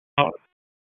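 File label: Police Southeast District Dispatch, p25; Raleigh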